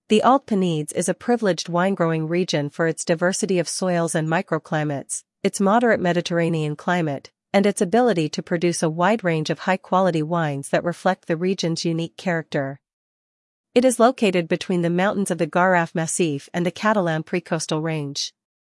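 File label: entorno English; entornoyvinedos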